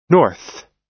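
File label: "north", American English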